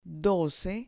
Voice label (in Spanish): "doce", Spanish